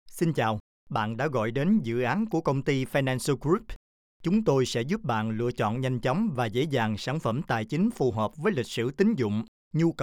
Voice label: VIETNAMESE; FINANSO GROUP